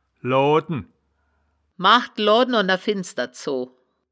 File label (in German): "loaden", Wittlicher; das wittlicher woerterbuch; woerterbuch vertonung